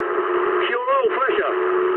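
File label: damaged oil mood medium, speech English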